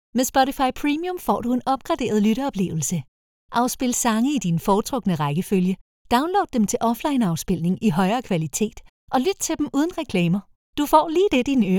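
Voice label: Danish, female; Spotify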